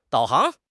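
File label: "导航", Mandarin Chinese; HSK; HSK6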